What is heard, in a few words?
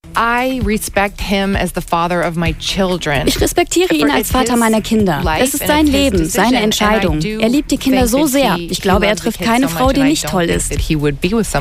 Voice Over